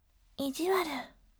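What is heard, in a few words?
"ijiwaru", gentle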